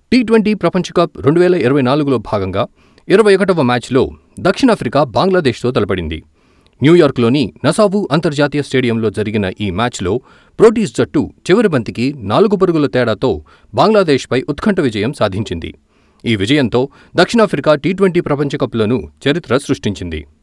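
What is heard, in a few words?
Telugu News, News Reading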